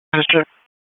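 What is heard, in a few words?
FIRE Operations, p25; FIRE OPS 1; wake p25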